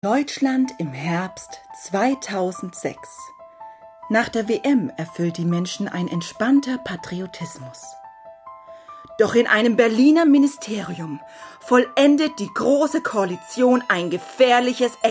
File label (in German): Monster mit Atmo